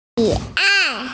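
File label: "xi3ai4", Mandarin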